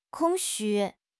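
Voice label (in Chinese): "空虚", Chinese; HSK; HSK6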